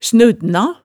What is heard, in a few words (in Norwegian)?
"snuddna", hemsedalsmaalet